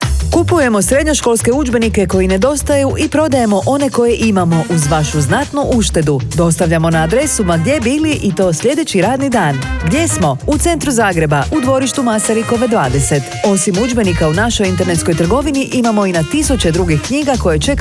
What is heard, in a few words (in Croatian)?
ANTIKVARIJAT STUDIO RADIO REKLAMA